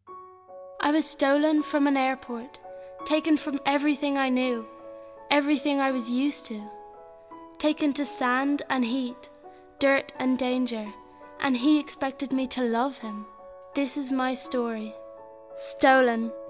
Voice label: Commercial

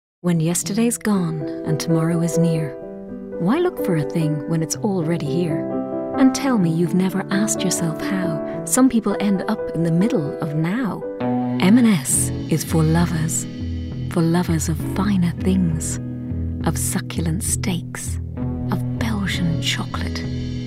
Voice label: Commercial

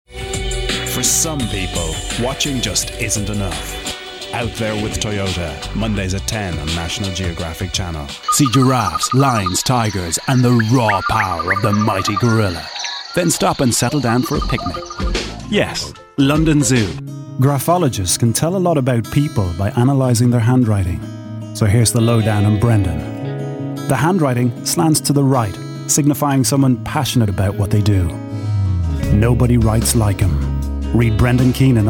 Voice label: VOICE DEMO

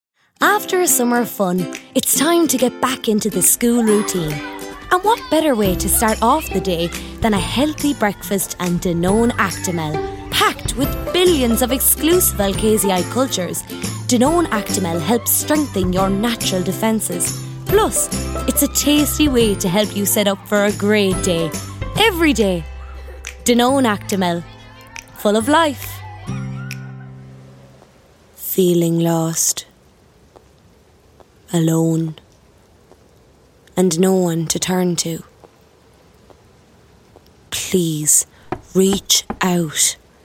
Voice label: Voice Over

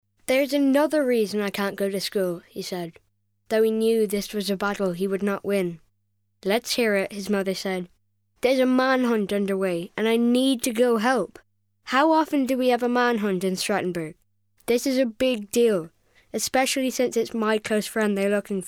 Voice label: Narration

